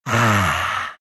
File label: happy; dota; meepo